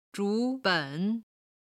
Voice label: "zhu2 ben3", Chinese

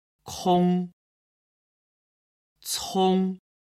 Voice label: "kong cong", Chinese

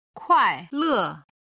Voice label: "kuai4le4", Chinese

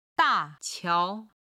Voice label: "da4 qiao2", Chinese

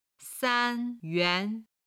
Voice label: "san1 yuan2", Chinese

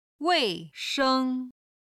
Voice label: "wei4sheng1", chinese

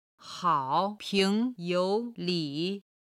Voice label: "hao3 ping2 you3 li3", chinese

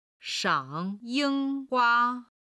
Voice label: "shang3ying1hua1", Chinese

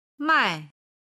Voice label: "mai4", Chinese

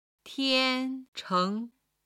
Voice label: "tian1 cheng2", Chinese

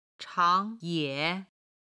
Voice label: "chang2 ye3", Chinese

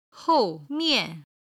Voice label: "hou mian1", Chinese

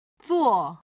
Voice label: "zuo", Chinese

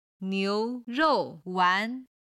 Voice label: "niu2 rou4 wan2", Chinese

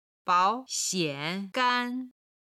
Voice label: "bao2 xian3 gan1", Chinese

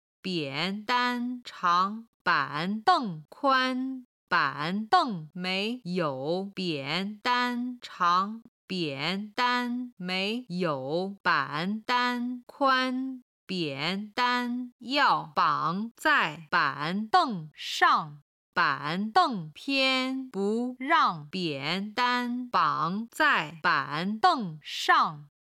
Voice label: "bian3 dan1 shang2", chinese

